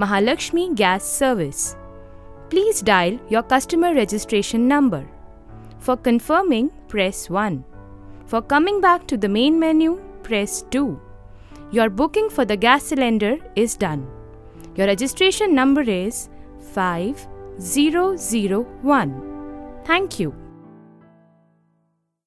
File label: IVR english